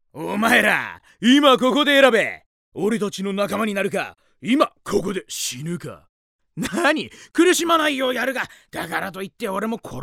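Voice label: jokester; お調子者; bloomz-inc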